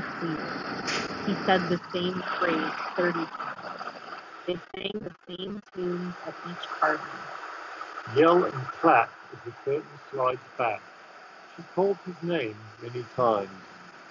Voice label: Beats Fit Pro Street microphone

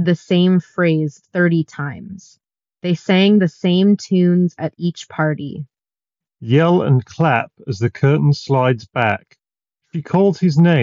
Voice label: Ideal microphone sample; JLab JBuds Lux ANC

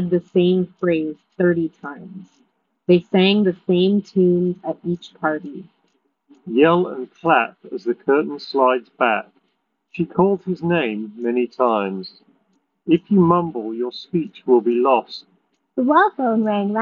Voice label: Anker Soundcore Space Q45 Wind microphone sample

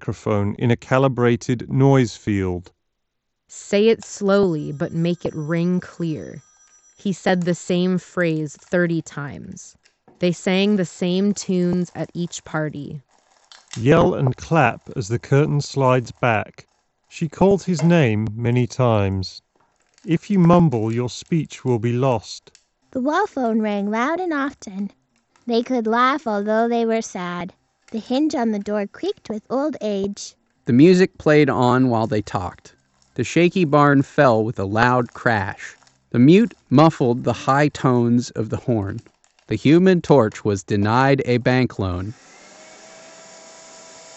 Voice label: HyperX Cloud Jet office

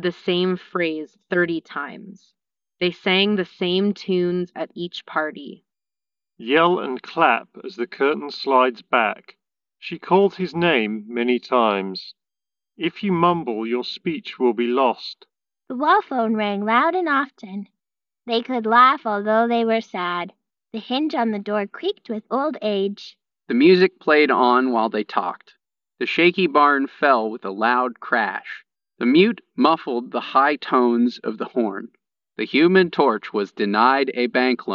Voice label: Anker Soundcore Space Q45 Ideal microphone

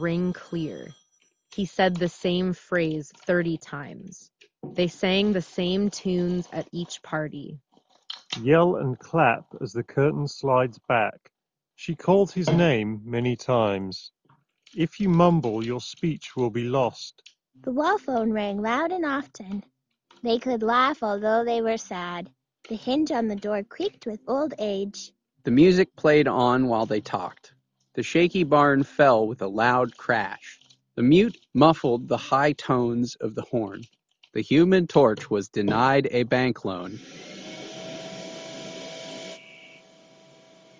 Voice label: HS65 Wireless Office microphone sample; Corsair

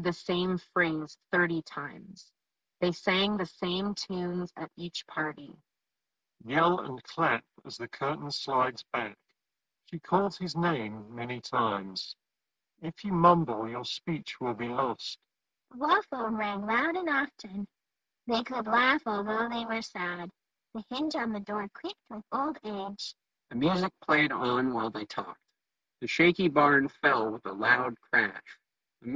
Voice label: Ideal microphone sample; Logitech G Fit Lightspeed

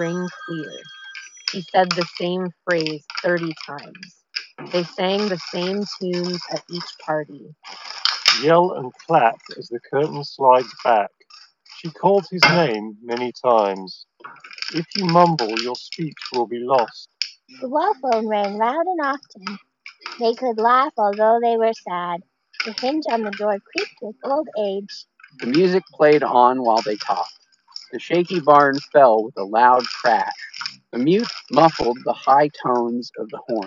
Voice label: Tozo T10 Office microphone sample

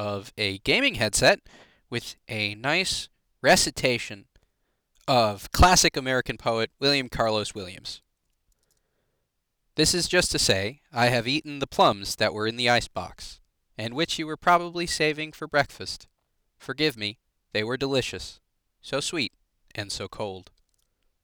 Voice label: Arctis Pro Mic recording

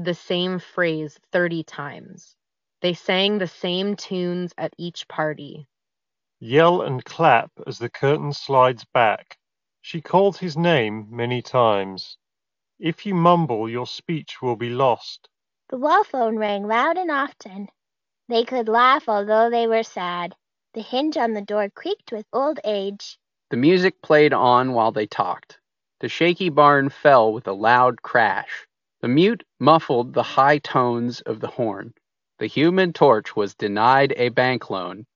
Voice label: JLab Epic Air Sport ANC Ideal microphone sample

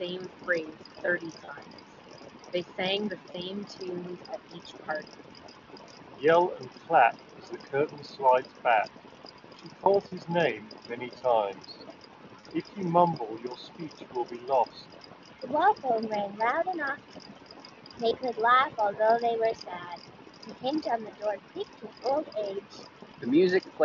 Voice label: JBL Live 660NC Wind microphone sample

